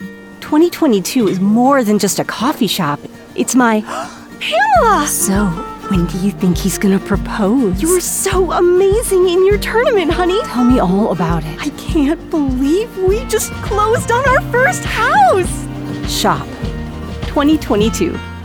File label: Commercial